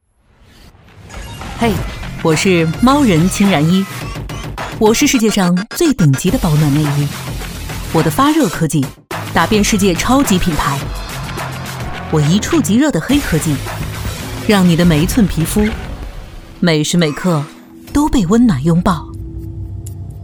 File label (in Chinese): 女 个性; 猫人轻燃衣